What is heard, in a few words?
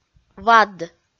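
"вад", Komi-Zyrian, female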